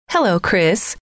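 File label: hello, female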